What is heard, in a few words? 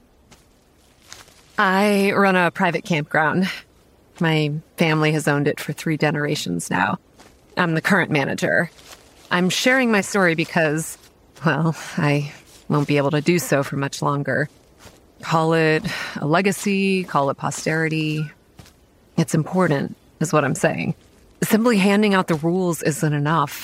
english american podcasts